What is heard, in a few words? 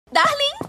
Darling Portuguese Ringtone